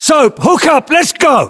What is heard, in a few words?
voiceovers, US; english